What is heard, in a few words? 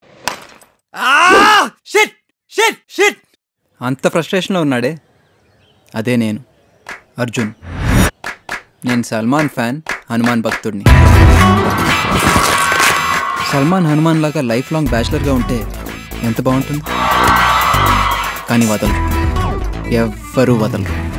Dialogue Tone